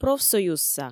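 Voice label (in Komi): "профсоюзса", Komi, female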